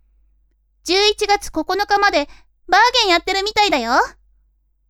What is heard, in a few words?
women